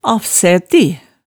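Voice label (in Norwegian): "afsæti", Hemsedal dialect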